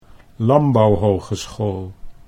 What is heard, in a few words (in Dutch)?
"lanbouwhogeschool", Dutch